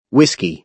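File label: "whisky" American English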